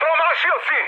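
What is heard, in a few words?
Serbian bomb failure mood high, speech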